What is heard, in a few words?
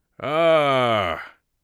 thankful, Mage Voice; Mage Voice Pack